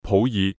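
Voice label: "普洱", Cantonese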